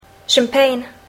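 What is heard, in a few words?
"champagne", English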